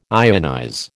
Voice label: "ionize", American